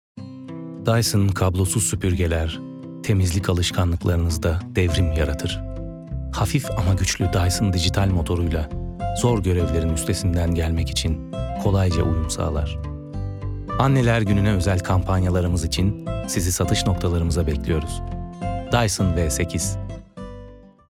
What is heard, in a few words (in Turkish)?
sicak samimi